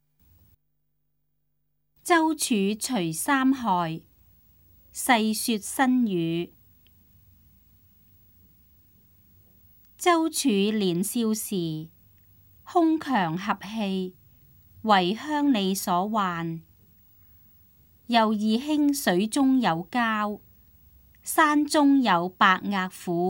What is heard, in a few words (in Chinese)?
cantonese; 周處除三害; 粵語